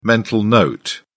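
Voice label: "mental note", British English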